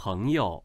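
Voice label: "peng2 you5", Chinese, male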